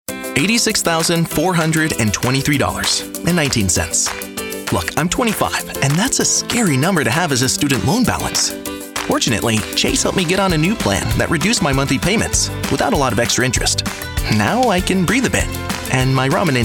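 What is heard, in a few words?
Commercial, New Zealand English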